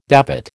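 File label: "davit", American English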